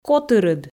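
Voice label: "котырыд", Komi, female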